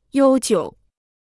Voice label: "悠久", Chinese

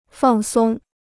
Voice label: "放松", Chinese

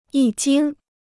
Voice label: "一经", Chinese

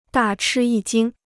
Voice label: "大吃一惊", Chinese

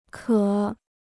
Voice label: "渴", Chinese

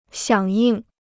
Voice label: "响应", Chinese; 响应 (xiǎng yìng); to respond to; answer

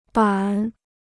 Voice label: "板", Chinese

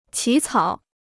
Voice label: "起草", Chinese

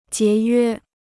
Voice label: "节约", Chinese

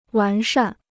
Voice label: "完善", Chinese

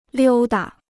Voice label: "溜达", Chinese

